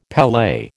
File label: "pele", American